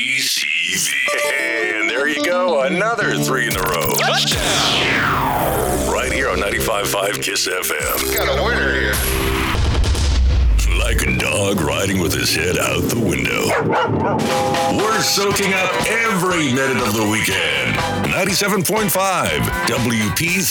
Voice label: Country Imaging